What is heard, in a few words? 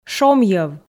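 "шомйӧв", Komi, female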